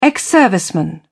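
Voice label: "ex-serviceman", British English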